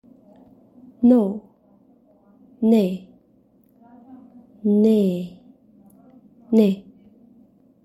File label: No Tajik